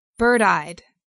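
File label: "bird-eyed", English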